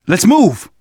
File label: revive friend, survivor voice manager